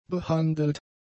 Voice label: "behandelt", German; adjectives